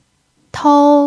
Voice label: "tou1", Chinese pinyin